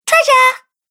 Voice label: Cartoon Girl Treasure, Cartoon Voice Sound Effects